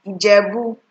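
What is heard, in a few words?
"Ijebu", Nigerian Pidgin, female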